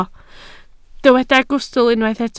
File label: "gwystl", Welsh